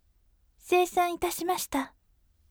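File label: voice seisan itashimashita gentle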